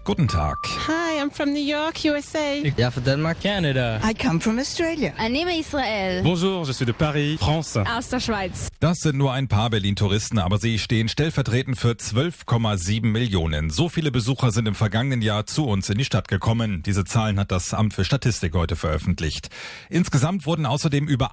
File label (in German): Spreeradio Nachrichten; 105 5 Spreeradio